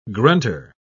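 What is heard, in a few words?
"grunter", British English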